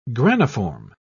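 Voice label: "graniform", English, British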